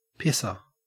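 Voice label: "pisser", Australian English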